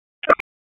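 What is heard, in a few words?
Police Northwest District Tac, radio; wake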